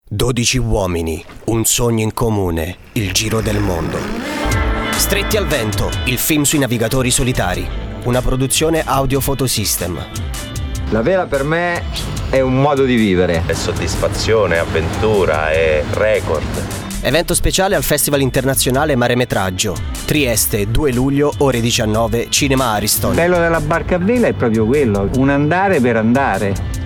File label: Spot Podcasting; Trieste